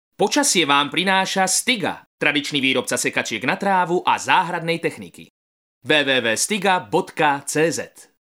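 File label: Male Slovak commercial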